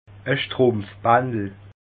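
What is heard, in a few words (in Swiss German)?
"strumpfbandel", Bas Rhin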